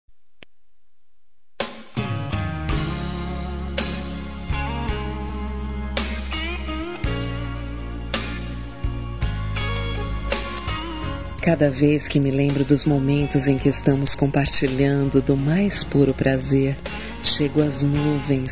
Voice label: EROTICA FEM; Sensuais; Telemensagens; ROM EROTICA

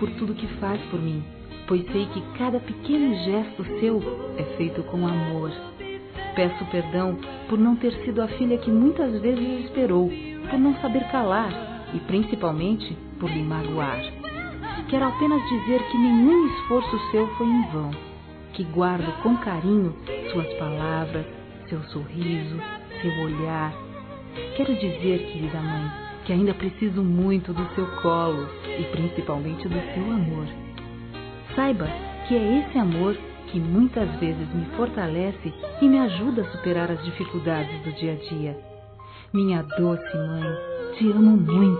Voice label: OBRIGADO MÃE F, Telemensagens Dia das Mães Fem; Telemensagens 2017

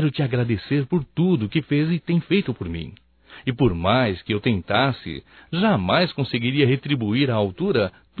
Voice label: Aniversário Irmão Masculino, Só Voz; Telemensagens; Telemensagens 2017

